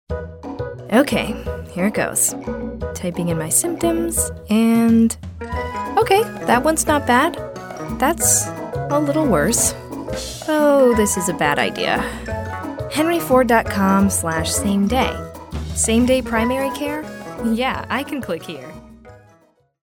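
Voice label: English US, female, commercial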